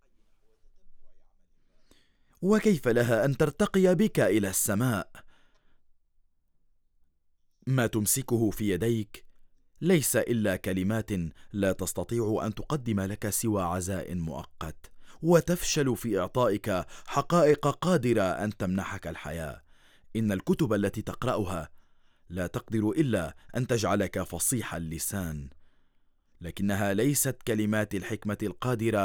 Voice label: Documentary